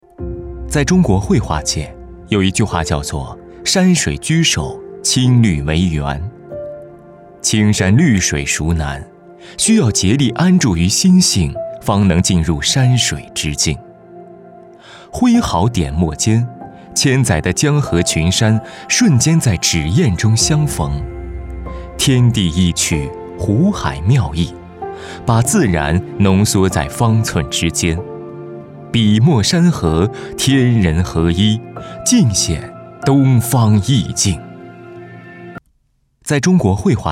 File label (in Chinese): 男 广告 汽车; 别克汽车在中国绘画界